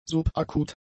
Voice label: "subakut", German; adjectives